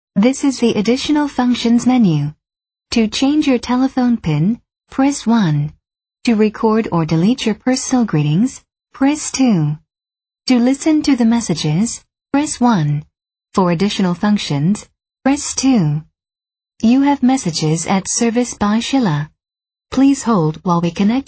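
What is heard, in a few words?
American English, female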